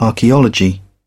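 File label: "archaeology", British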